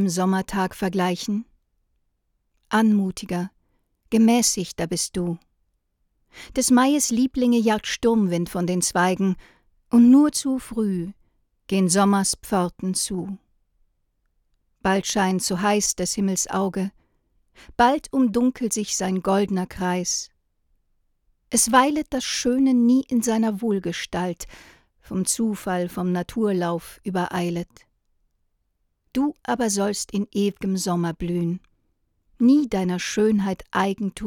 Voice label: neumann u 47 sprache

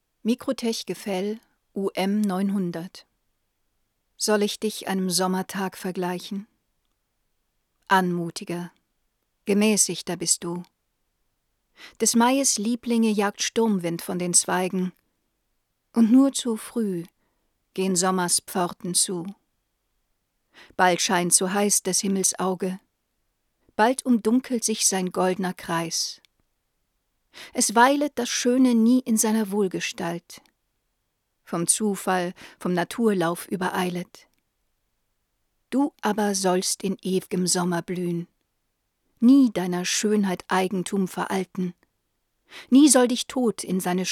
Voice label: microtech gefell um 900 sprache

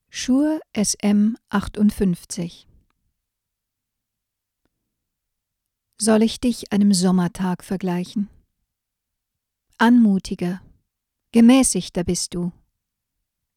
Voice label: shure sm58 sprache

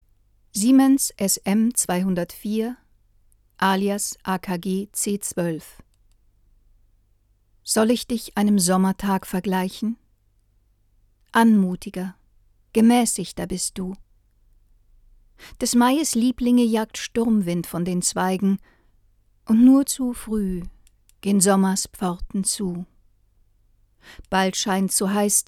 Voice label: akg c 12 Sprache

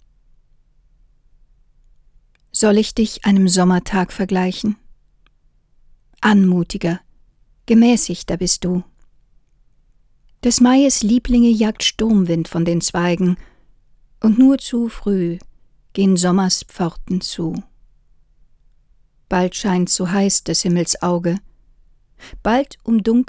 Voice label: microtech gefell m 300 sprache

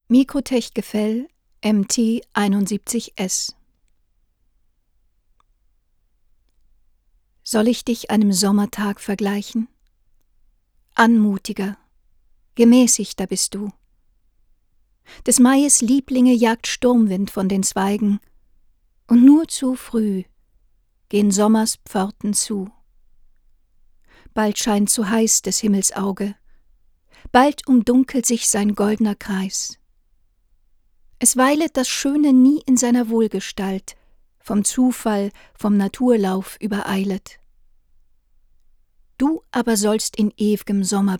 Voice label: microtech gefell mt71s Sprache

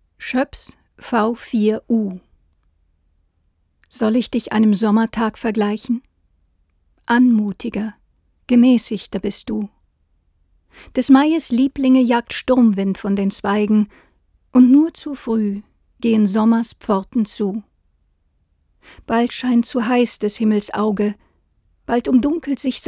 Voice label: schoeps v 4 u sprache

